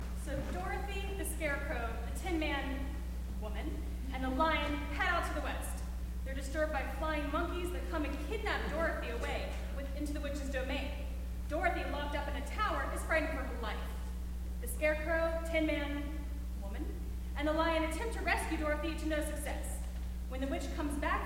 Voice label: Narration